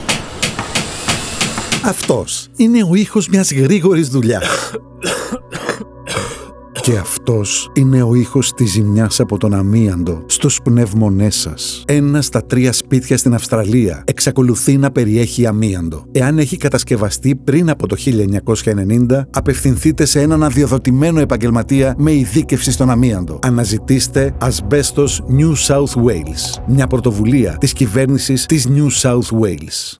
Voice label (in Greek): RADIO GREEK